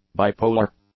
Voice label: "bipolar", English; adjectives